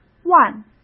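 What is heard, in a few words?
"万" Mandarin Chinese; chinese-flashcards; hsk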